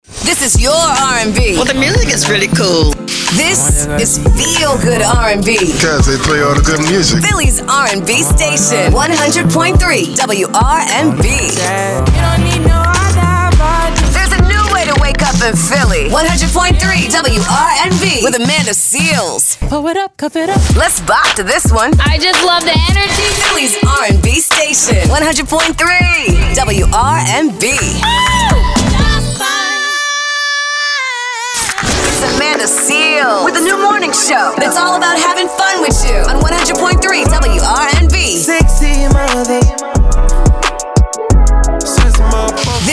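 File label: radio imaging women progressive urban adult contemporary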